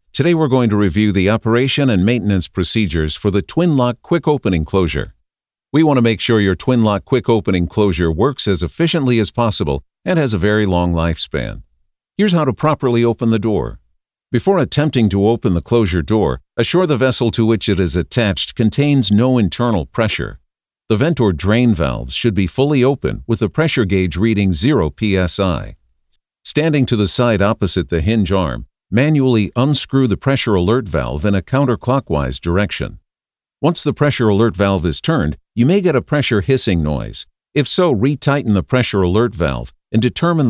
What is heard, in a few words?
Explainer